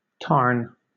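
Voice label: "tarn", British English; pronunciation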